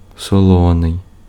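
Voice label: Ukrainian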